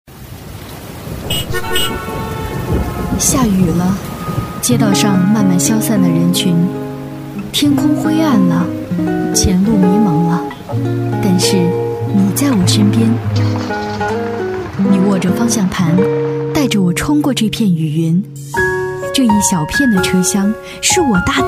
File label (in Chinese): 女 电台宣传 温柔; 成熟知性